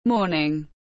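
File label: "morning", English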